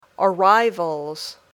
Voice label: "arrivals", English